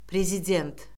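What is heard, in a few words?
"prezident", Azerbaijani; Orfoqrafiya Lüğəti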